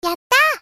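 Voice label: "Yatta", Japanese female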